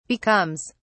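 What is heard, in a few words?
"becomes", British English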